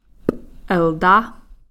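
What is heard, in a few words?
"slug", Takelma word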